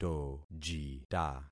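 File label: "tojita", concatenated syllables; Affixing experiment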